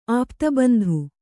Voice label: "ಆಪ್ತಬಂಧು", kannada

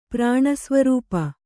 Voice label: "ಪ್ರಾಣಸ್ವರೂಪೆ", Kannada

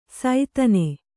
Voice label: "ಸೈತನೆ", kannada

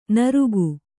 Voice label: "ನಾರಕ", Kannada

